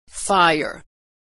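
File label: "fire", American English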